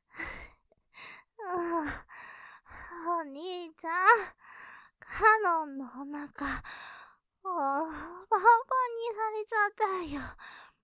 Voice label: 余韻◆お腹、ぱんぱんにされちゃったよぉ; ループで楽しむ！シェフの気まぐれ抜き抜きコース; モノラル

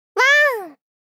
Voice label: 1（ワン） 少女×中音域

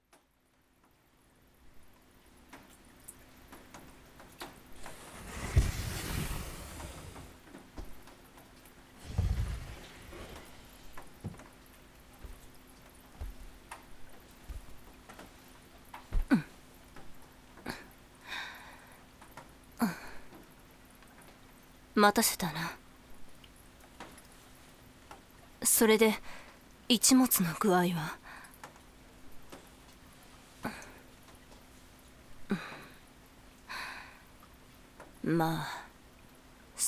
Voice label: 雨降りバージョン, ASMR

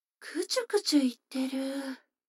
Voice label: 女の子台詞

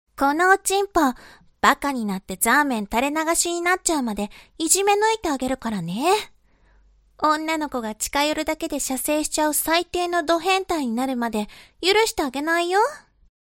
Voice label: 懲罰中音声, ASMR

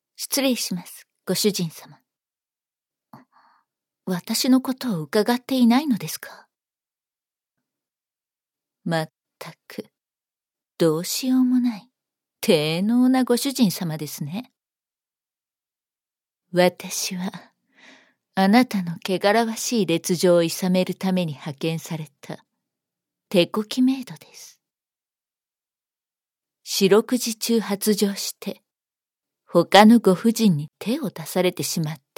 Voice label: 自己紹介, ASMR; SE有り

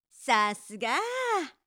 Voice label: さすが～, 恋人に甘える口調

